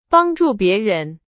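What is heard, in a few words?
Chinese, example sentence; hsk2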